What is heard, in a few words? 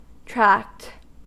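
"tract", US English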